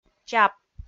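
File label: "чап", Komi, female; kpv audio dict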